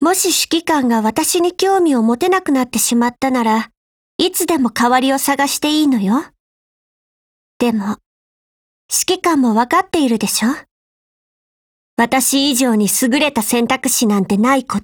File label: DIALOGUE WEDDING JP; HK416Mod